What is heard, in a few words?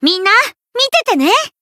variable chant; ciel